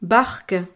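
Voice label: "barque", French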